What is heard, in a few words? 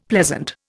"pleasant", Indian; Dictionary